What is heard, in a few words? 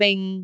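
"bing3", Cantonese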